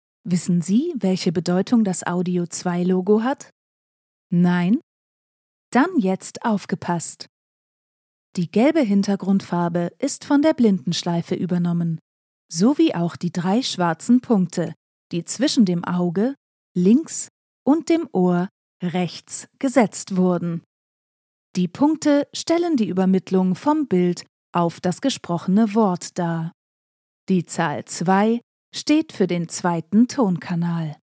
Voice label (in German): Hochdeutsch, sprechproben